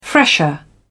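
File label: "fresher", British English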